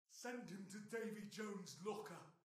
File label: beat pirate voice; goldentavern